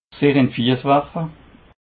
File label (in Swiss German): "fiess", alsace